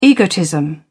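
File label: "egotism", British English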